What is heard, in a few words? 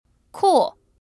"kuo4", Chinese; vocabulary